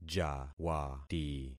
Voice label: "jawati", concat syllables; Affixing experiment